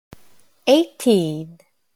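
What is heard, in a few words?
"eighteen", English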